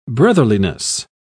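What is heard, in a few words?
"brotherliness", British English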